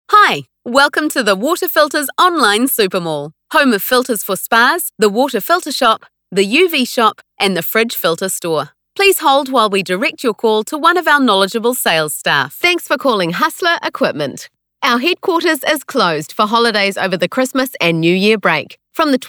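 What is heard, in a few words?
New Zealand PHONE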